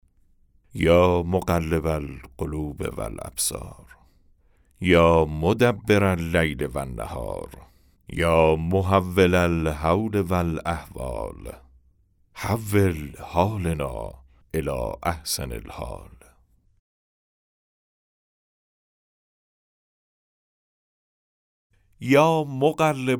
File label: Narration; nowruz